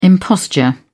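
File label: "imposture", British English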